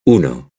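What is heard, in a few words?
"uno", Spanish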